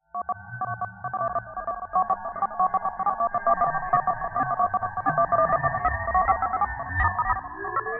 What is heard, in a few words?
Crazy Alien Chatter